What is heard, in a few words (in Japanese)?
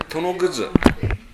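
"tonoguzu", 南山形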